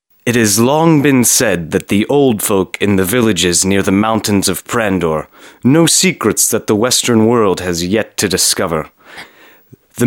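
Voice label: male English documentary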